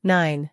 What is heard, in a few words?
"nine", voice